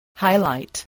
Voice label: "highlight", English, spelling